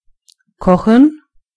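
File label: boil, German voices words; gcompris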